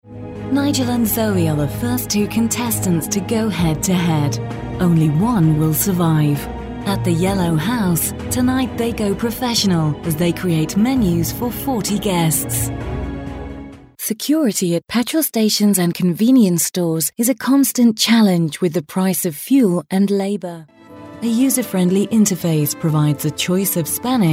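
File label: British English narration, female; english-uk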